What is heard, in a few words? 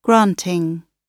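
"granting", British English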